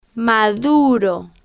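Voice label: "maduro", Spanish, female, slow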